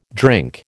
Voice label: "drink", American

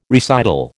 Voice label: "recital", American

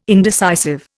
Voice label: "indecisive", Indian

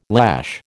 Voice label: "lash", American

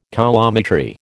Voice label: "coulometry", American

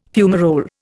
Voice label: "fumarole", Indian

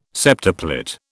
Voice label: "septuplet", British

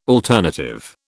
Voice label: "alternative", British

